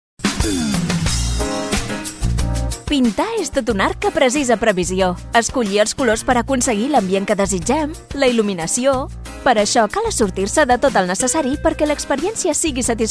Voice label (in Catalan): SURTETE DE LO NECESARIO CATALAN; pinturas andalucia